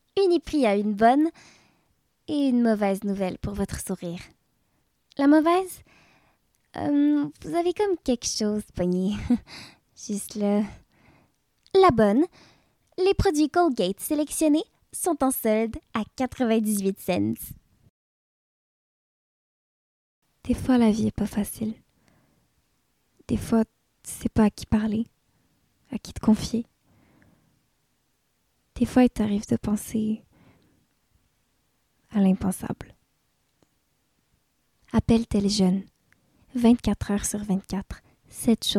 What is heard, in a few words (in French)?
voix ado jeune adulte